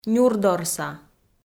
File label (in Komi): "нюрдорса", Komi